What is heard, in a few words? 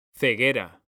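"ceguera", Spanish, male; mekanta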